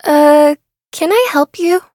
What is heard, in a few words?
touch, en; cookie run